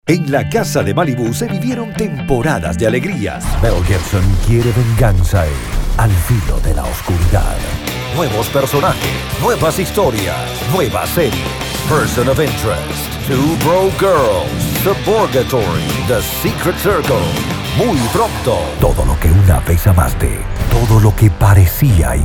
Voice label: Promo